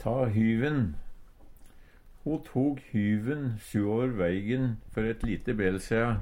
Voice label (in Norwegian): ta hyven, Numedalsmål; leggje på sprang; Uttrykk; Ho tok hyven suåver veigen før eit lite bel sia.